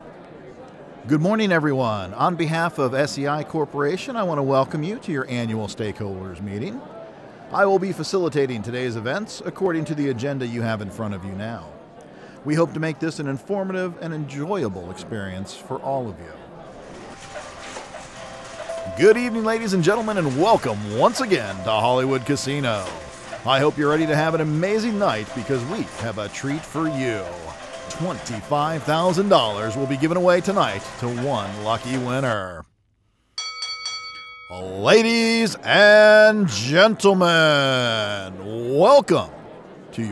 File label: Announcer